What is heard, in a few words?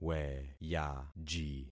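"weyaji", concatenated syllables; Affixing experiment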